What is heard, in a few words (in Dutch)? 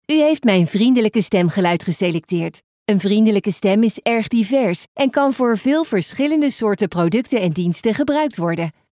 Vriendelijk